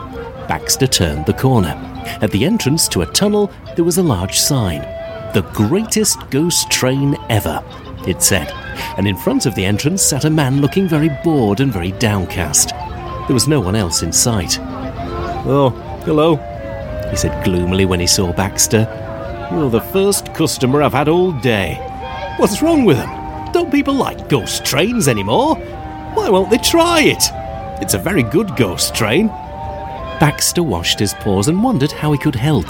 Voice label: audiobook